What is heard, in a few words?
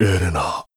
sad